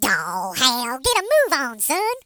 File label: Hurry, Hill Billy; hedgewars